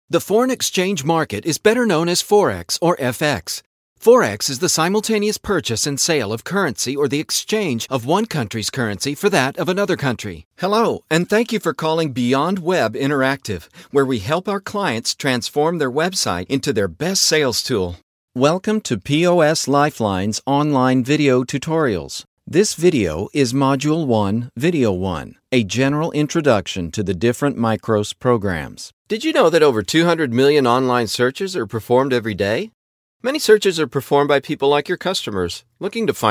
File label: Dry